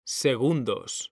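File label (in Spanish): "segundos", Spanish; mekanta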